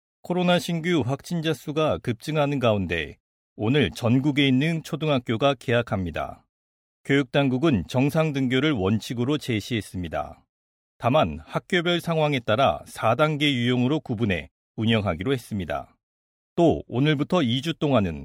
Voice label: foreigner